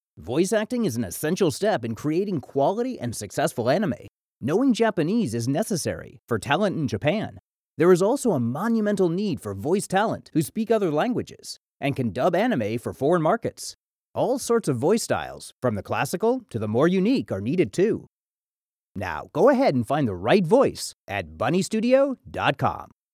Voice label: Anime voice actors